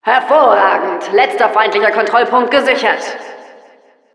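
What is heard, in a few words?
success secured final control, German; Announcer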